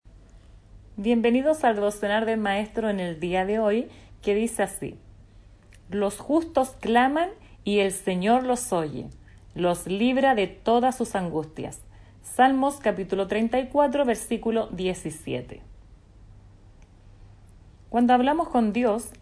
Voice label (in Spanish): devocional